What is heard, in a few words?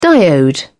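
"diode", British English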